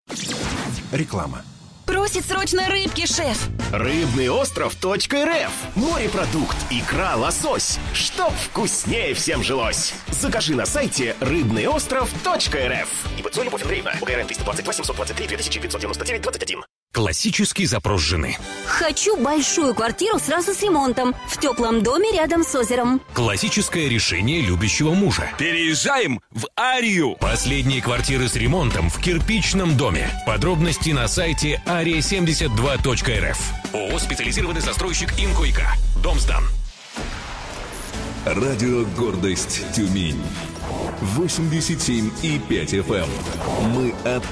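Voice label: Russian local radio advertising; Radio Gordost Tyumen 87.5 FM; Mestnaya reklama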